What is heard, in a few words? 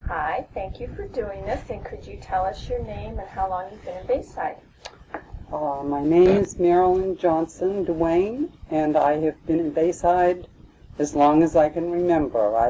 Noise Removed, Oral History